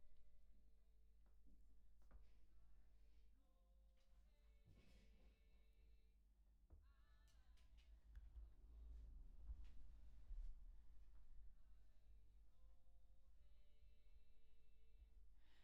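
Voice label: Vocoder; ardour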